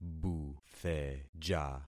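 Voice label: "butheja", concatenated syllables; Affixing experiment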